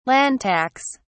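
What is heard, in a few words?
"land tax", British English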